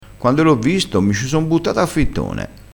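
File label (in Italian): "a fittone", dialettale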